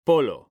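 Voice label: "polo", Spanish, male; mekanta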